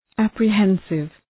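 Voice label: "apprehensive", English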